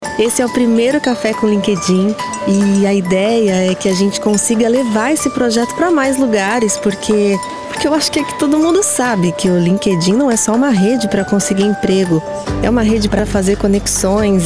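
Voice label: Brazilian Portuguese female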